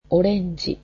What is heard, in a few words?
"oranges", japanese